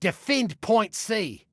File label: DEFEND, Male